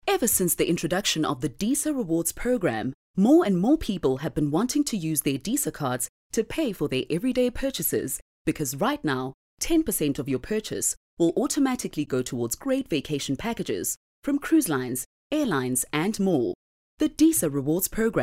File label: English Corporate